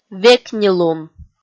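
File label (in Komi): "векнилун", Komi, female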